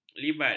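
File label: "libat", Malay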